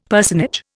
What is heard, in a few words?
"personage", Indian; Dictionary